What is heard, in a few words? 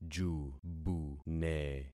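"jubune", concatenated syllables; Affixing experiment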